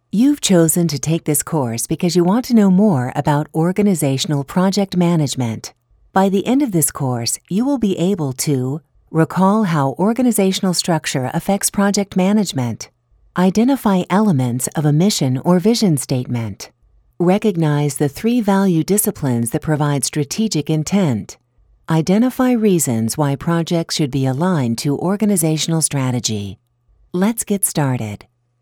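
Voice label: English US E-Learning; GoLocalise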